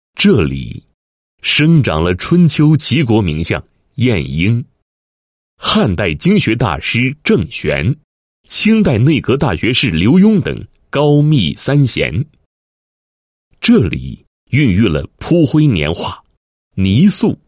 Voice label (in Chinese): 男-申报材料-人力资源服务产业园申报, 配音案例; 高密市